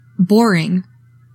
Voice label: "boring", english; 3000 words